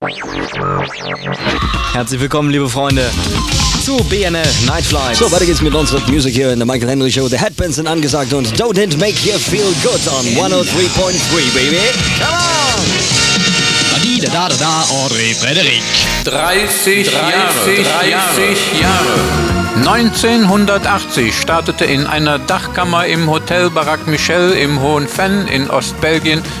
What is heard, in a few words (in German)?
promo fuer radio